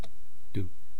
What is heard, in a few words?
"tu", East Cree syllabics; language